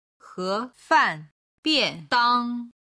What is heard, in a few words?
"hefan" "biandang", Chinese